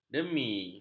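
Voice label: "demi", Malay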